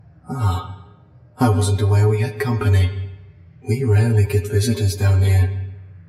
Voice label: battlecry; scp sf; 049